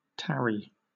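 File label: "tarry", British English; pronunciation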